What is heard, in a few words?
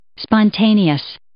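"spontaneous", English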